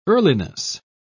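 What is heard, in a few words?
"earliness", British English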